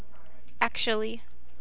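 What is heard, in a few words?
"actually", USA, female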